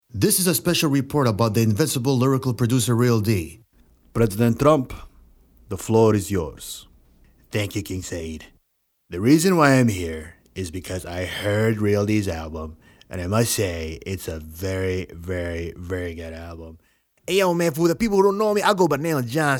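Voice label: voice over game animation English; Anmiation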